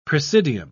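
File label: "presidium", British English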